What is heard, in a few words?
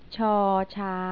"chang", Thai